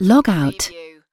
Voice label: UK female log out; lynne publishing